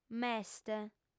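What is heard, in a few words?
"m'est", female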